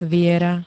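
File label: "viera", Slovak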